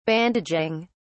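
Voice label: "bandaging", British English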